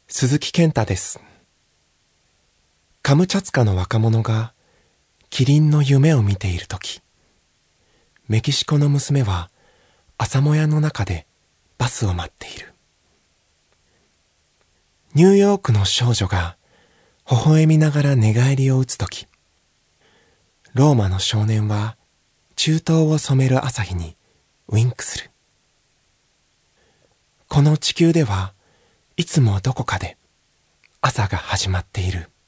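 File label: Narration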